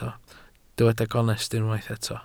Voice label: "gonest", Welsh

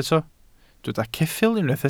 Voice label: "ceffyl", Welsh

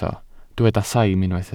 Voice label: "saim", Welsh

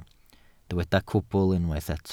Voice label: "cwbl", welsh

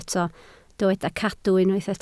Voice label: "cadw", Welsh

